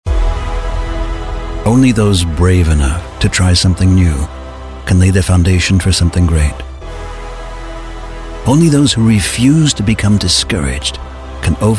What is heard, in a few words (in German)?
Messefilm; Audi